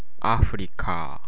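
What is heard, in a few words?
"Africa", Japanese, male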